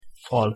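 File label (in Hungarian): "fal" Hungarian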